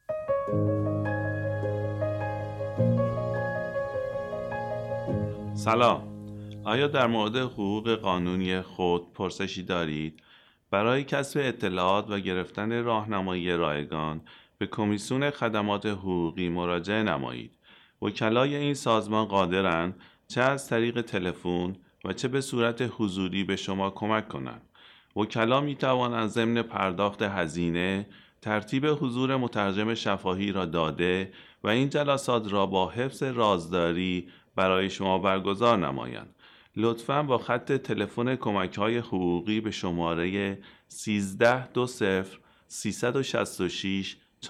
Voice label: Farsi